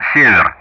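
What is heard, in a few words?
Speech Russian; Common Player N; DCS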